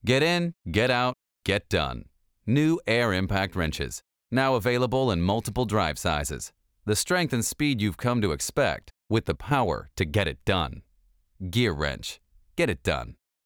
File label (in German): TVC; Tools